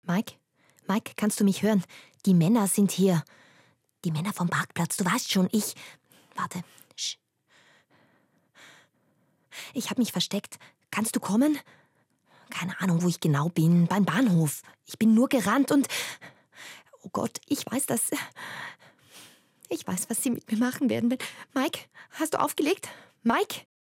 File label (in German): Hörspiel; DEMO Hörspiel; Sprecherin